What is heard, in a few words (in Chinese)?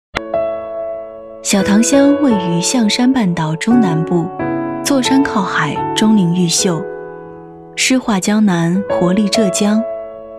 女 景区宣传 温暖柔和; 晓塘乡; 甜美亲切